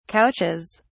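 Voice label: "couches", British English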